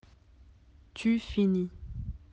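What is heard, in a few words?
"finir", French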